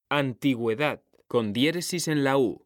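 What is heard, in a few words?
"antigüedad", Spanish, male; mekanta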